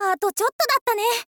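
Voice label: "ato cyotto datta ne", Japanese, girl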